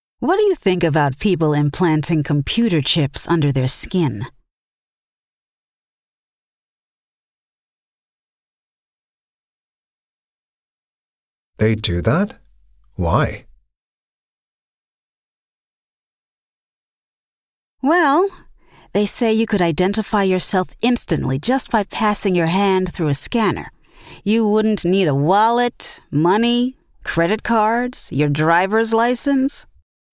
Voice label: PRONUNCIATION PRACTICE; Connectivity 5